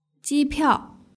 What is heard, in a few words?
"jipiao", Mandarin